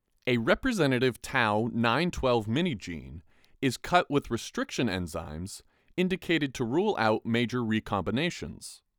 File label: Voiceover